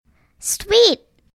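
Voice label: "sweet", English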